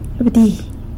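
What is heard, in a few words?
"bdi" ant, San Lucas Quiaviní Zapotec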